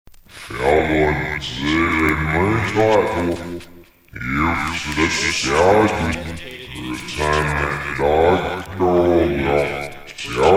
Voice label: narration